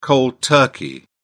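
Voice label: "cold turkey", British English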